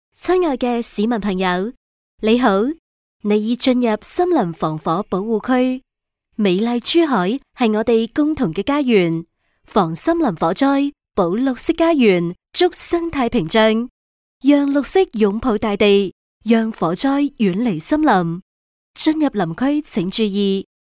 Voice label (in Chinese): 粤女 防森林火灾